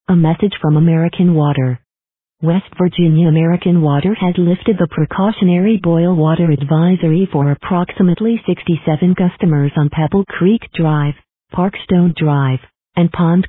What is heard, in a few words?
Voicemail